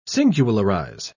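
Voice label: "singularize", British English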